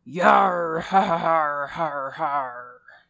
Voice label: Pirate Yar

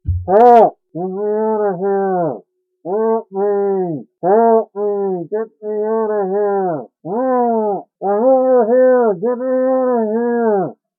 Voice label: Muffled Help Me

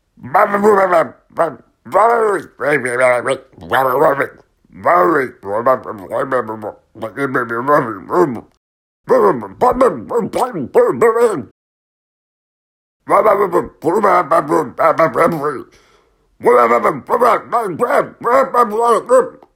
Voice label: Mumbling

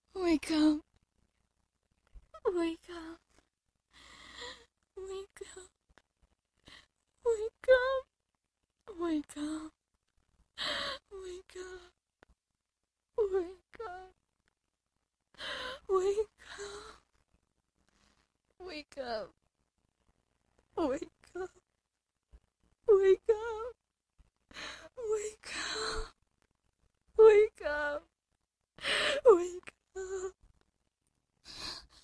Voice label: Whisper Crying Wake Up

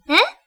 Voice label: "Huh", Japanese

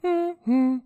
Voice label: Casual Mumble

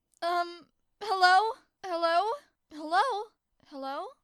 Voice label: Lost Confused Hello

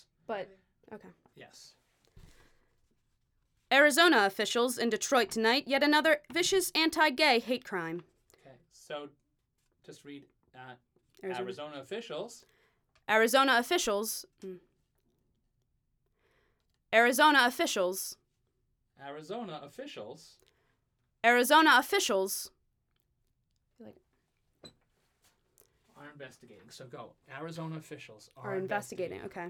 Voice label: Female News Report; Arizona Spot

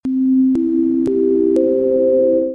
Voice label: Announcement Message

